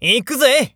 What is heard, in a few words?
nekketsu before